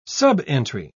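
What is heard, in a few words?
"subentry", British English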